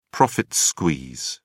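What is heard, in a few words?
"profit squeeze", British English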